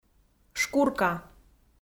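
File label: "шкурка", Komi, female; kpv audio dict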